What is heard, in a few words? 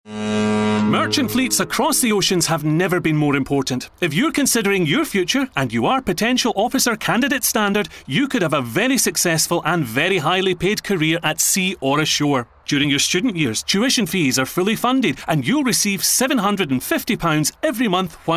30 sec radio; Clyde Marine Training